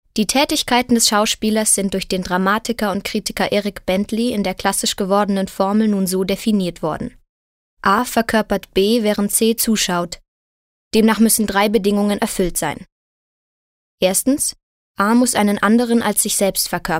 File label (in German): Neutral